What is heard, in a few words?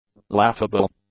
"laughable", English; adjectives